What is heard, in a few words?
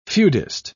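"feudist", English, British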